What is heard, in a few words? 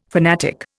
"fanatic", Indian